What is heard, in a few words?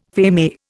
"feme", Indian